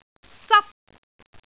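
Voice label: "sap1", Cantonese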